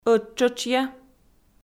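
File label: "ӧттшӧтшъя" Komi, female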